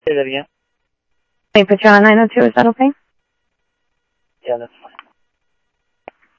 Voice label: Sheriff scanner audio